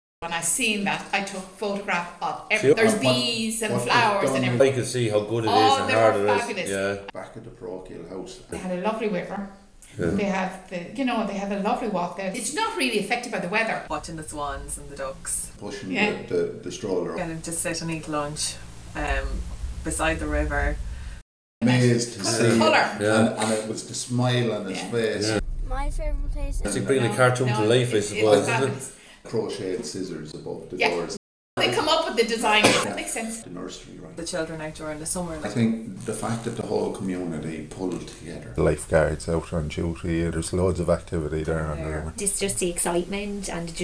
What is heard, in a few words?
Vox Pop conversation lines; beside the river